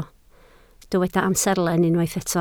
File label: "amserlen", Welsh